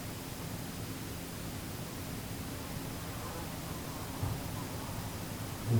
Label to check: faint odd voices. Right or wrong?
Right